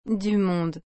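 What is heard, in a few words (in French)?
"du monde", French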